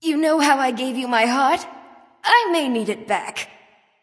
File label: Female Death; Valentine; Khepri